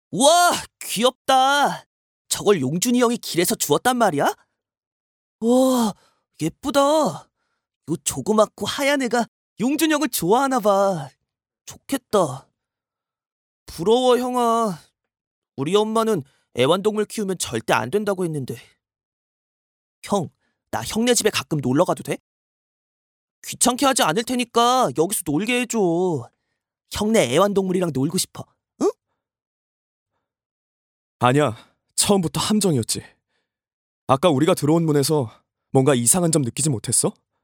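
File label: Korean, male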